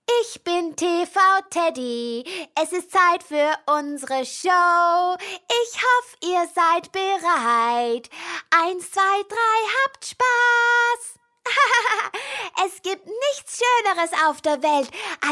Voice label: character, Versatile German Accent Female Voiceover